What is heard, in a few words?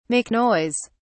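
"make noise", British English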